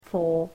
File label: "for", english